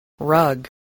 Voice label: "rug", English spelling